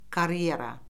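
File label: "karyera", Azerbaijani; Orfoqrafiya Lüğəti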